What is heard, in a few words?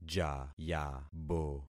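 "jayabo", concatenated syllables; Affixing experiment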